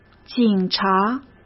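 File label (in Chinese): "警察", Mandarin Chinese; chinese flashcards; hsk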